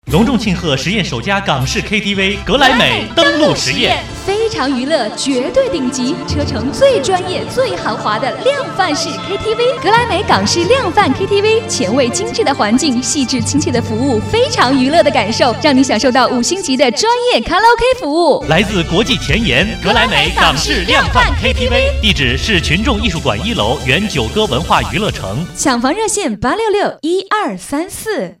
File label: girl